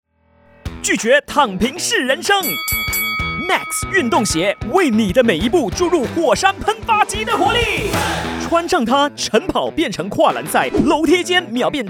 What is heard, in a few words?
Mandarin - Explosive, Commander, High Energy